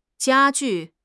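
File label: "jia1 ju4", Mandarin Chinese; HSK; HSK4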